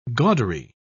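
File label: "gaudery", British English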